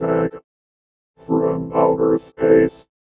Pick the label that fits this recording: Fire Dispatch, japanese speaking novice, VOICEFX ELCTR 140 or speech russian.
VOICEFX ELCTR 140